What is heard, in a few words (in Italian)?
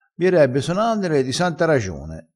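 "sona", dialetto crespinese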